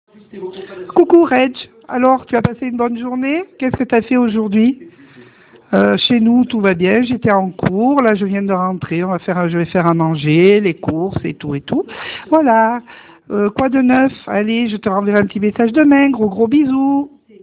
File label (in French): Message vocal